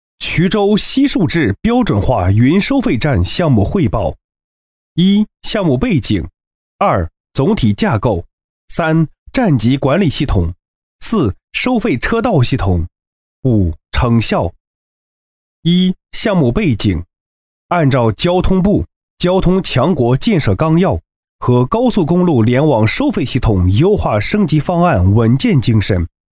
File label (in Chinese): 专题 工程汇报 大气舒缓沉稳; 项目汇报成品